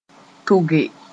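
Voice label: "tʋge", Mooré; go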